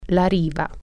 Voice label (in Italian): "shore", Italian